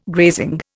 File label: "grazing", Indian